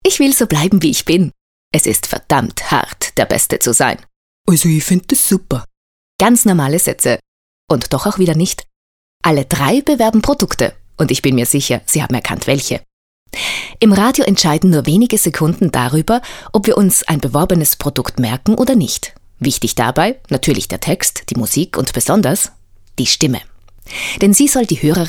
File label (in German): werbestimme